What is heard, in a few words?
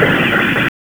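Police Spec Ops Tac, radio; Raleigh; Tac 5; wake; p25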